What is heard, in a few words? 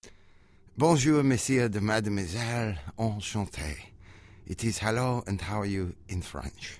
French